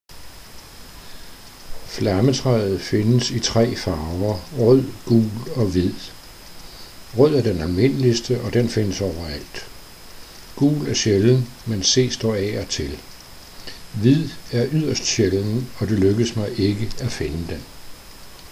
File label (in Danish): Flamboyante dansk; Guadeloupe; Basse Terre